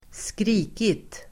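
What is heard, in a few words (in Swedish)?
"skrikit", Swedish; Uttal: [²skr'i:kit]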